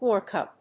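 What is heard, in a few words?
"orca", English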